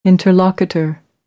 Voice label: "interlocutor", American English